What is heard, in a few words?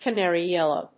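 "canary yellow", English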